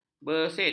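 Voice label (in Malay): "besit", Malay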